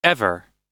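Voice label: "ever", English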